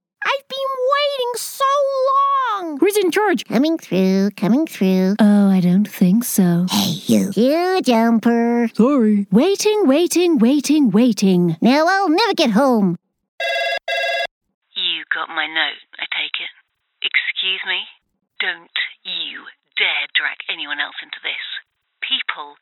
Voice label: Animation Character

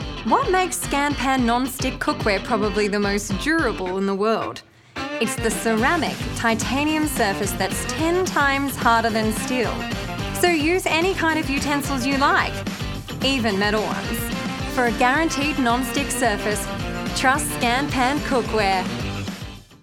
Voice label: cookware commercial; Scanpan

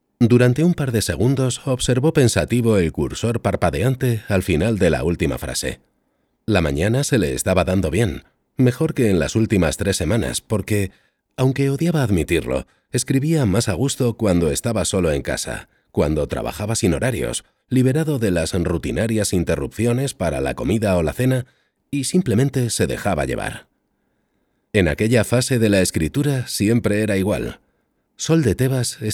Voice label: Spanish Castilian audiobook

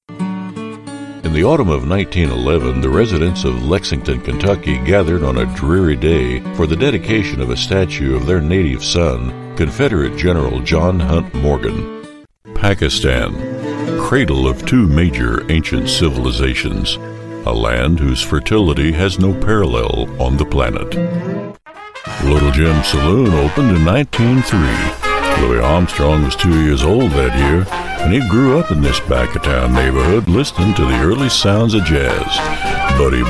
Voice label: Narrations Compilation

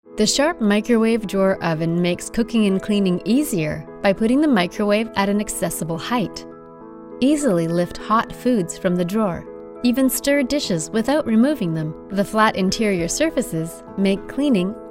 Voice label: television

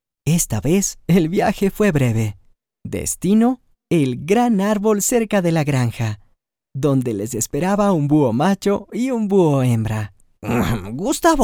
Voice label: Audio Books Kids

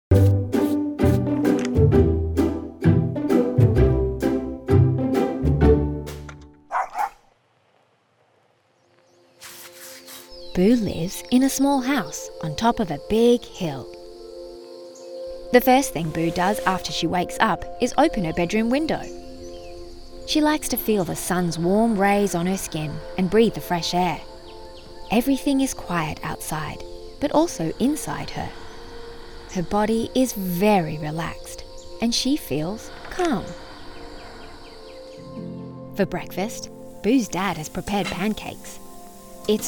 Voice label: Emotions

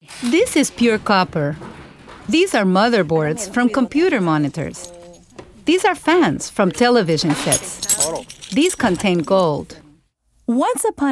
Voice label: Hispanic Accent English

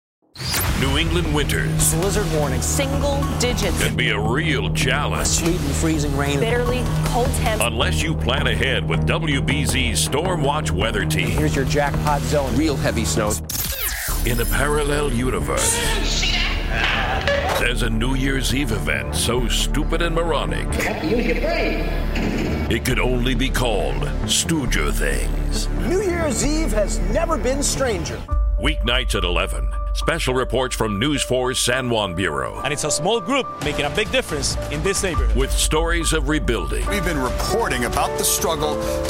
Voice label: TV radio imaging

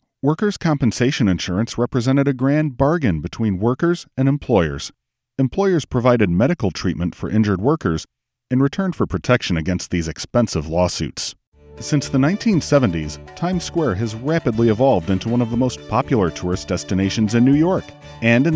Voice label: narration

